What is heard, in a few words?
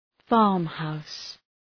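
"farmhouse", English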